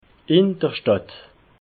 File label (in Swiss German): "indrstadt", alsace